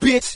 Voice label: "bitch", expletives